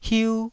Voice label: "hiu1", taiwanese